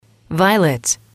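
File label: "violet", English, female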